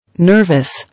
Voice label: "Nervous", English